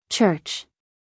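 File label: "church", American English, female; everyone-can-use-english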